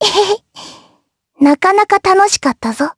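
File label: Victory, Japanese; Lewsia; Kingsraid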